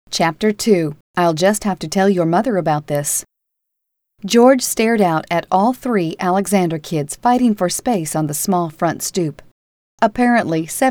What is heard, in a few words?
narrator audiobook